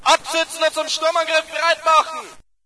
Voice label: ger start round; player; Source Engine; dod